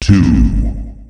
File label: "two", announcer male; Unreal Tournament; ut2004